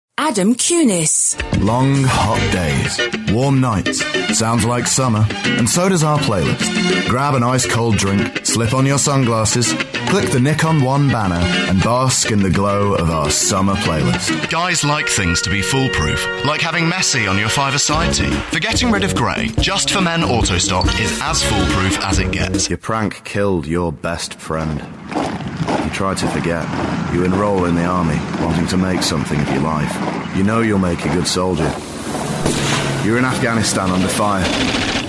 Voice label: Commercial